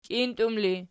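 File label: "kintum" ergative, Archi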